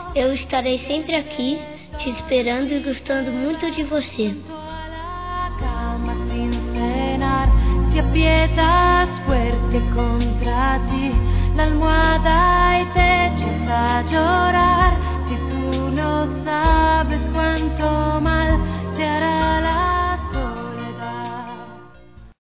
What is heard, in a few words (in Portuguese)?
Aniversário Tia Infantil Masculino; Telemensagens 2017; Aniversários